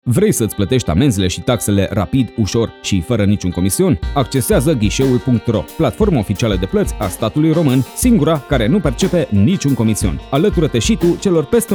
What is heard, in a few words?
romanian promos